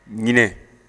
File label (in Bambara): "nyinɛ", Bambara; animaux; Mots Bambara